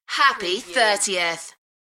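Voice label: UK female, DJ vocals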